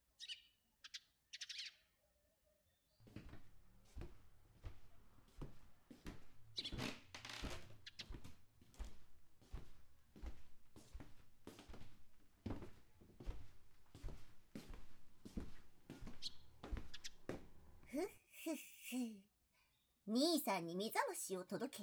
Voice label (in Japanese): 双子目覚まし, ASMR; 癒しを届けに行くお店 憩恋 さゆり